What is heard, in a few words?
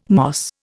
"moss", Indian